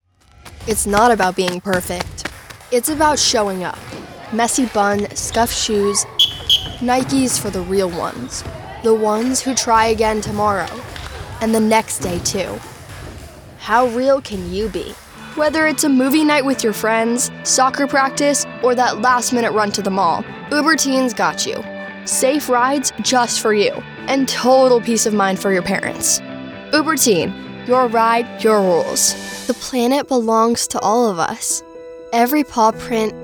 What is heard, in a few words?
kids commercial